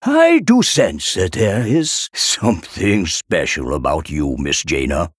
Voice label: Male Human Idle